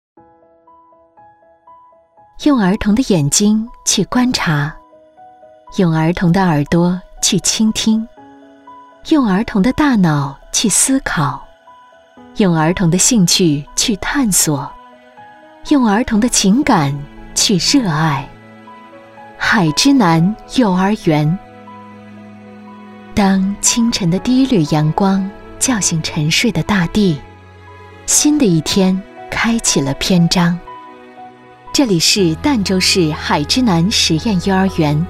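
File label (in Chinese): 宣传片柔和有爱幼儿园; 海之南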